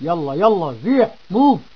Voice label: "yallah", arab

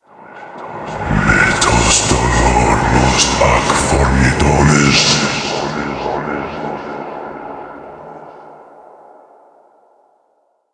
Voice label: evil voice; medieval

